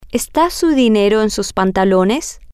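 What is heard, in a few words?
Spanish, female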